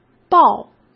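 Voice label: "爆", Mandarin Chinese; chinese flashcards; hsk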